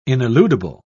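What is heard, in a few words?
"ineludible", British English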